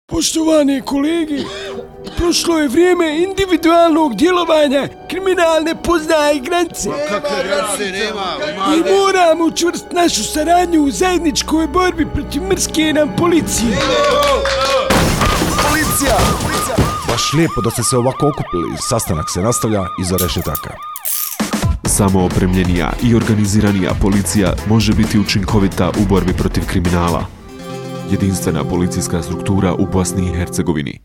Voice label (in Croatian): radio spot mafija cro; police campaign